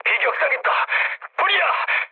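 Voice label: Korean on fire mood low; War Thunder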